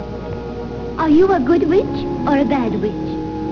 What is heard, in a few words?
WITCH